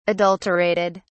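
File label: "adulterated", British English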